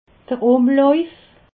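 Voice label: "umlauf", Alsatian, Haut Rhin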